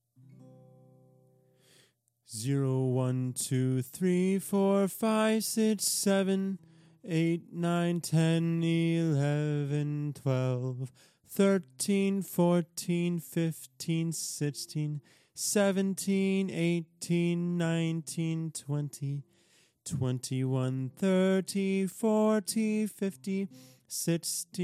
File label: count song male